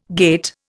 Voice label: "gait", Indian